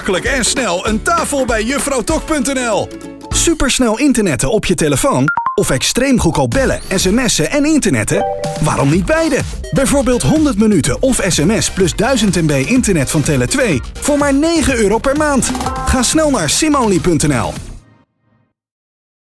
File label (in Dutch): algemeen, voice-over